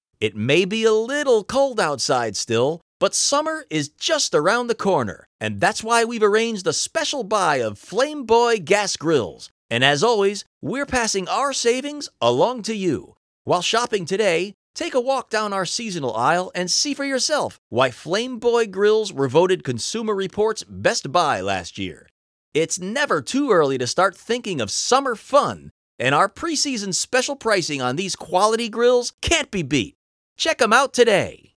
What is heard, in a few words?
in store promo gas grille, Industrials